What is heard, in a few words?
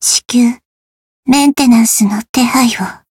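win barely, Japanese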